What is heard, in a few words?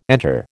"enter", American